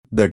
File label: Phoneme Male; Commonscript; Lynguistykon